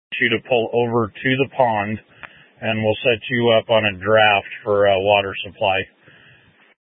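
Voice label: Incident Tactical 2, Scanner audio recording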